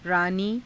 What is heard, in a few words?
"raanii", Hindi; रानी; [女]王妃